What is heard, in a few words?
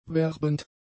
"werbend", German; adjectives